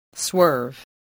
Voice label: "swerve", English